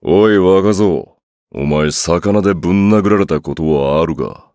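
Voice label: start, Japanese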